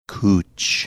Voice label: drawn out "couch"

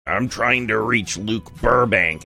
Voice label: gruff

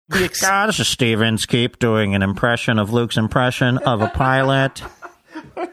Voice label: doing an impression airplane pilot; saying ah this is steve inskeep

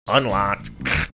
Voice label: saying unlocked making funny sound

